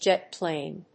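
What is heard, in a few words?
"jet plane", English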